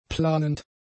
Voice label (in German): "planend", German; adjectives